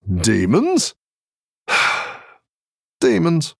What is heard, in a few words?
Male Human